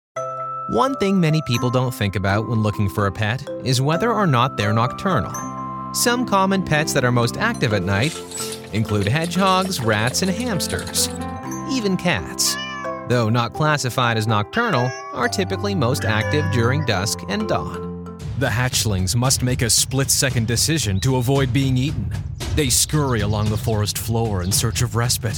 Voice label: Narration

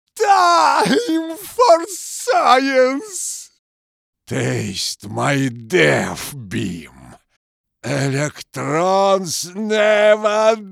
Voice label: Mad Scientist video game

